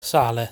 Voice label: "Sale", Italian; lesson 6 articles plural

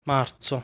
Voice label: "Marzo", Italian

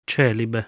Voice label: "Celibe", Italian

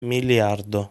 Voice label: "Miliardo", Italian

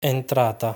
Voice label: "Entrata", Italian pronunciation

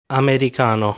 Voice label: "Americano", Italian

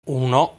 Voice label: "Uno", Italian; lesson 2 numbers

